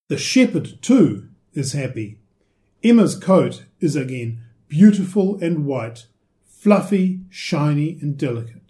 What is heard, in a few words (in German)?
englisch